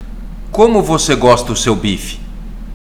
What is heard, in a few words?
Portuguese Listening; Portuguese Test